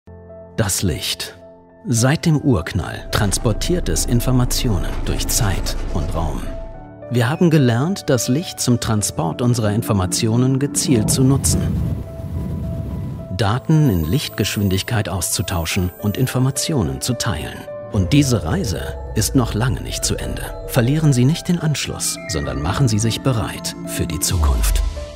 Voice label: Voice GERMAN

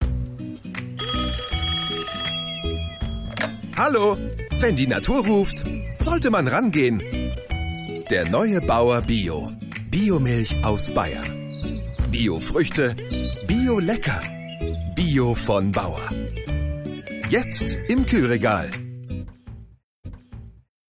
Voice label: Bio YT PreRoll; Bauer Bio

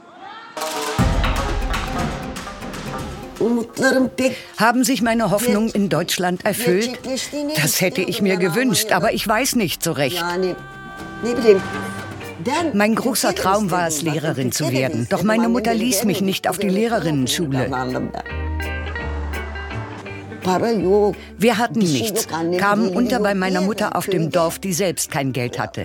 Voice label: voice over; ARD; Unter Almans

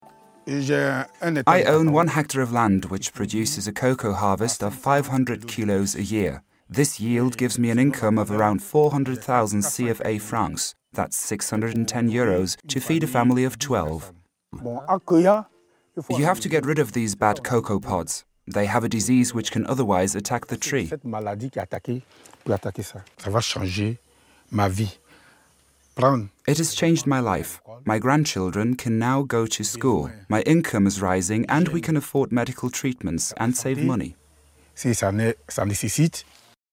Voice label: english voice over

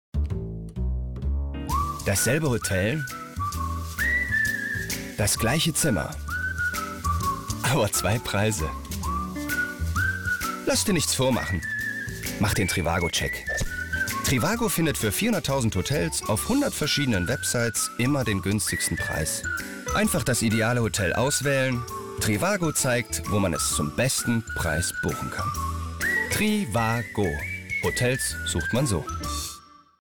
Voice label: TV Spot; Trivago

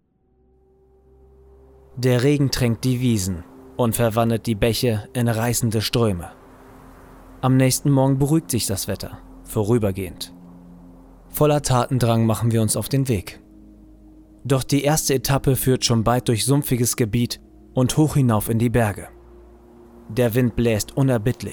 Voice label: Doku, German; Doku Irland

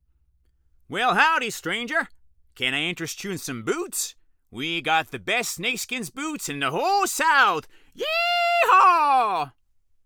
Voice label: EN Cartoon Computer Game Crazy Texas Cowboy; LOFT VOICES

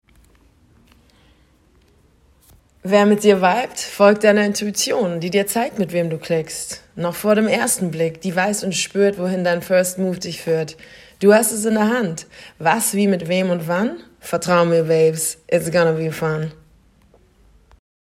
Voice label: Deutsch VO; LOFT VOICES

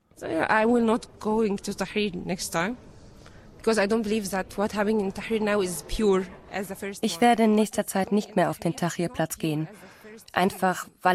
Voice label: Voice Over

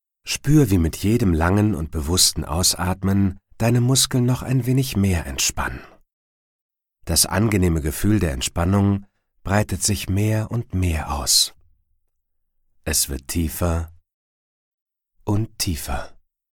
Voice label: Meditation; Mindance

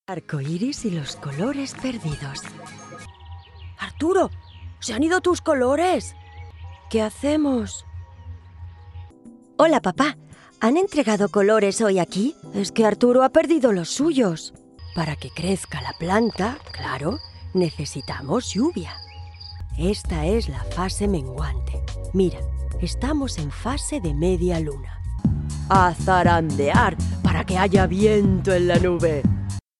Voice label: Spanish Spain Female Cartoon

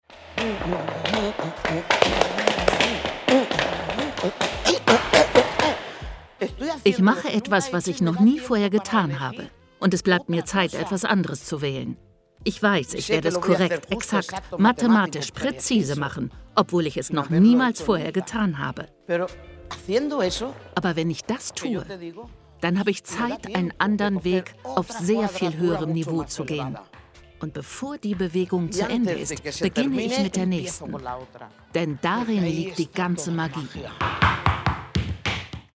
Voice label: Voice Over

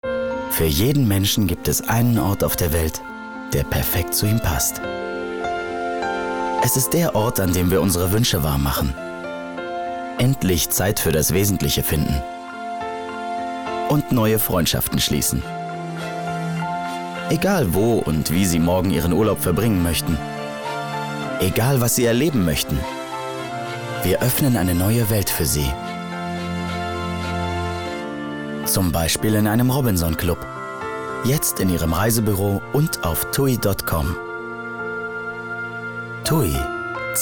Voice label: tvcs neutral; tui; faecher robinson 40; LOFT VOICES

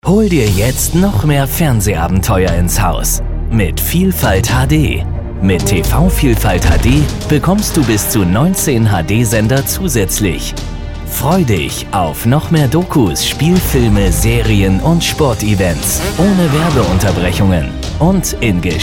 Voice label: Trailer; Vodafone

